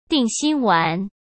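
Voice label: "定心丸", Mandarin Chinese; hsk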